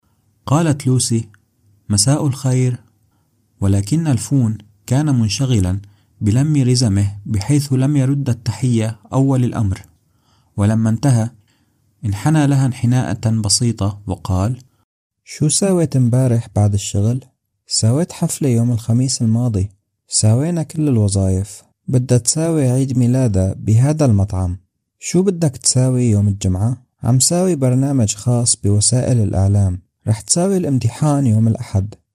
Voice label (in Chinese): 阿拉伯男声